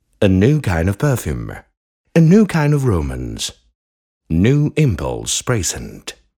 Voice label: Bajada, Ingles (Britanico)